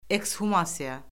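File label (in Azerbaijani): "ekshumasiya", Azerbaijani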